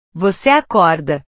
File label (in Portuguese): "voce acorda", portugues; Tú despiertas